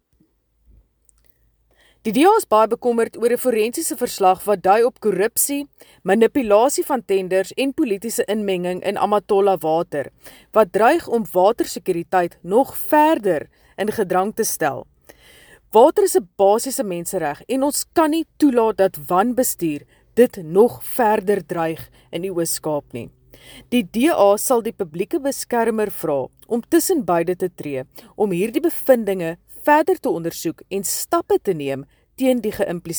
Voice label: Afrikaans; Amatola Water corruption threatens water security in Eastern Cape